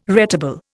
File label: "retable", Indian